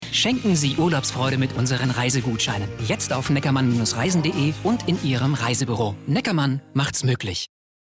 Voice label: Funk freundlich kurz

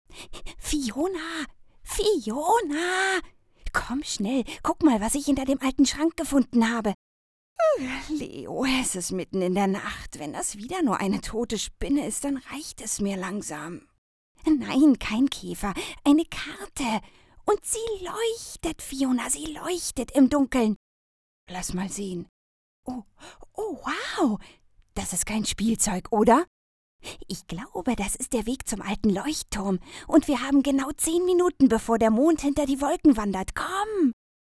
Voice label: Hoerspiel Kinder

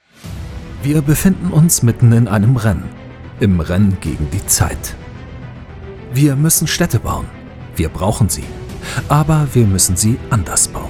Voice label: Doku Off

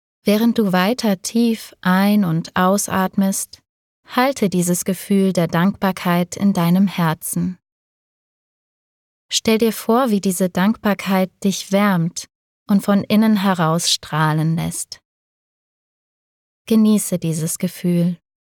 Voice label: Dankbarkeitsmeditation; Frieda Health

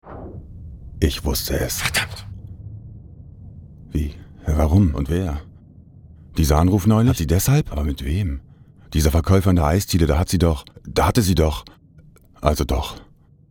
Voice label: OFF stimme Film; LOFT VOICES

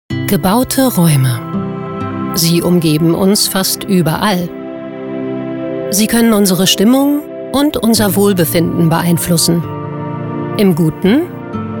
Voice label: Dokumentation

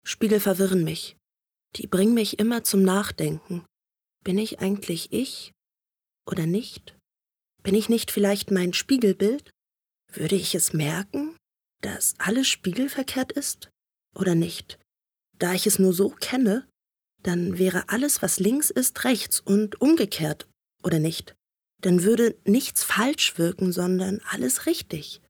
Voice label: Innerer Monolog, BER

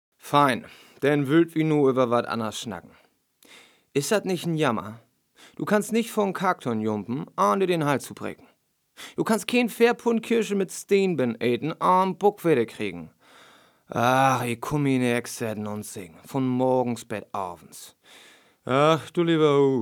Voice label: platt; LOFT VOICES

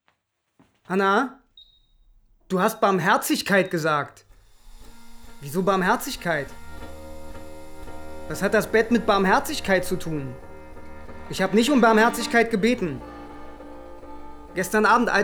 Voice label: hoerspiel; schwanengesaenge

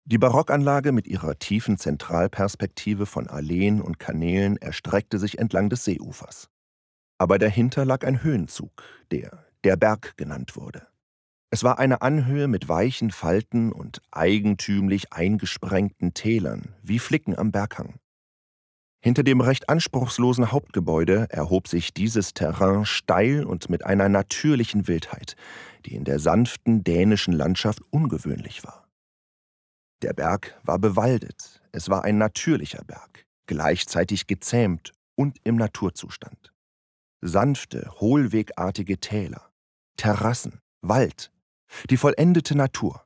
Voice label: Sprecher Literatur

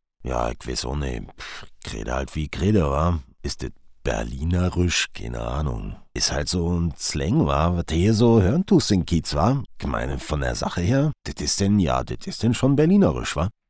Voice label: berlinerisch

